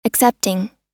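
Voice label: "accepting", US English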